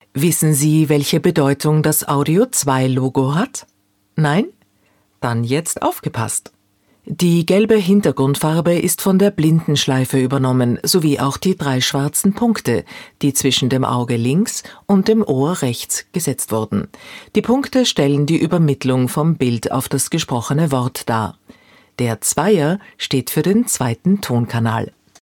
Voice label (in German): Oesterreichisches Deutsch, sprechproben